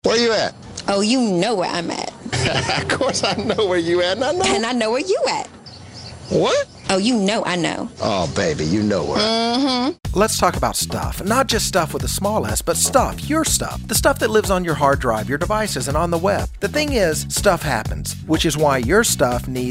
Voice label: vo demo